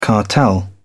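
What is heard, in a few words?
"cartel", English, British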